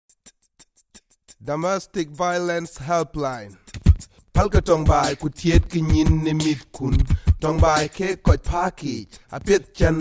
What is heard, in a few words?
Dinka English; 1800RESPECT; Domestic Violence Helpline - Dinka